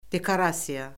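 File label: "dekorasiya", Azerbaijani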